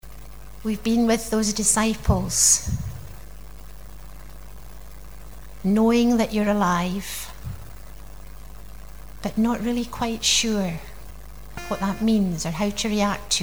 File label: close prayer; easter praise evening; short prayer